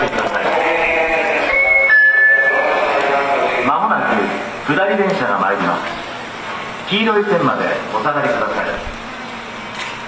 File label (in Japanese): sekkin, 接近放送 チャイムが独特です。; 湘南新宿ライン; 池袋・新宿方面